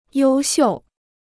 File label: "优秀", Chinese